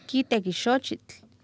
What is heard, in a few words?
kIiteki xoochitl, Oapan, female; Oapan minimal pairs 1998